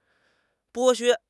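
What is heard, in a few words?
"剥削", Chinese; HSK6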